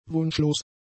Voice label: "wunschlos", German; adjectives